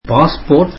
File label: tamil passport